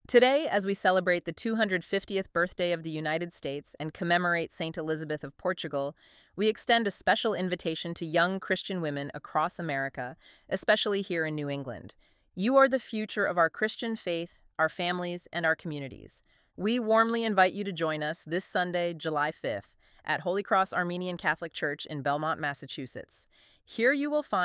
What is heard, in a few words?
Commercial 60 sec